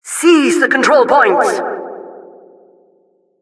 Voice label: announcer cap incite

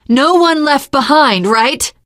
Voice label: inside saferoom, teen girl; source engine; left 4 dead 2; survivor

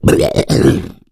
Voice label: male boomer alert; player; voice; source engine; left 4 dead 2

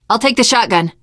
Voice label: take auto shotgun, teen girl, survivor voice

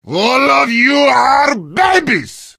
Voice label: heavy taunts; video games; source engine; tf

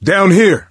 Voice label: misc directional, coach, survivor voice

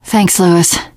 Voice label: thanks, teen girl

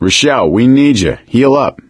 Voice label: suggest health, survivor voice; Left 4 Dead 2; Source Engine; video games; gambler

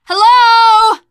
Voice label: lost call, teengirl; source engine; left 4 dead 2; survivor

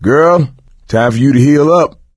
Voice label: suggest health, coach, survivor voice; video game; source engine; left 4 dead 2

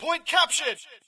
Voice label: brit point captured; ambience; Source Engine; dod

